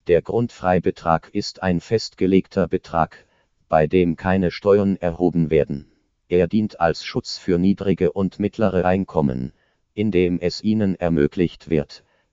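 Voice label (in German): männlich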